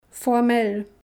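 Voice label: "formell", German; Kapitel 2; Alphabet erste Schritte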